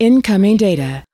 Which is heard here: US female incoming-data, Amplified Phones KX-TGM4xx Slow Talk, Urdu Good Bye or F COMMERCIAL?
US female incoming-data